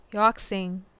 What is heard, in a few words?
female student, korean